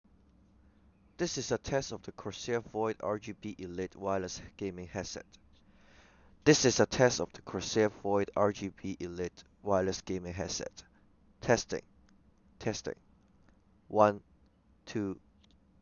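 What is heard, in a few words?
Wireless Microphone; Corsair VOID RGB Elite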